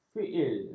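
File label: "fiil", Malay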